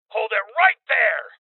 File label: Voices Freeze Male; rebel cops 2019 gamerip